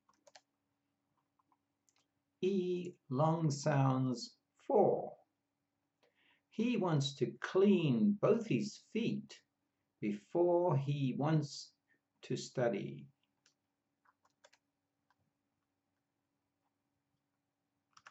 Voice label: E long sounds, pronunciation of australian english